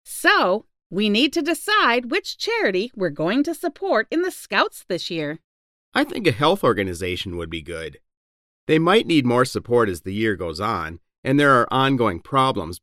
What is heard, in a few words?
conversation; Unit 9